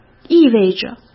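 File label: "意味着", Mandarin Chinese; chinese flashcards; hsk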